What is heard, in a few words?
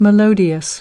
melodious